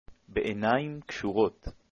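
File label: easy Hebrew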